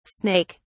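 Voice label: "snake(s)", British English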